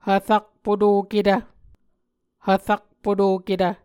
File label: "hvrakpotoketv", Muscogee; Mvskoke Words with Voicing Consonants; Onvkv Ohhvyayices